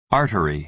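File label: "artery", American English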